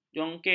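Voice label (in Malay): "jongkir", Malay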